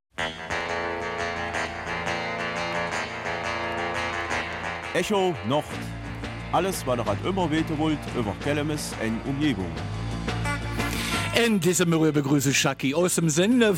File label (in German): Kelmiser Mundart; Wanderung für den guten Zweck am 19 Juni in Kelmis